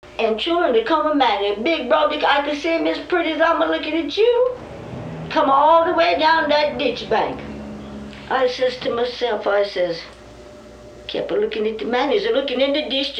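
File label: Older Lumbee Speaker, Tar Heel dialects; North Carolina; quiz voices of north carolina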